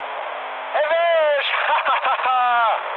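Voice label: German player killed enemy mood high, speech; War Thunder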